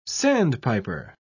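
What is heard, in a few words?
"sandpiper", British English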